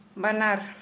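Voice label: "both", Santali, female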